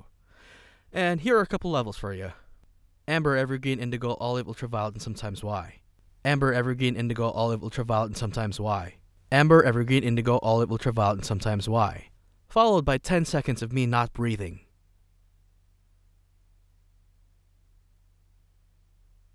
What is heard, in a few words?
Home Studio Raw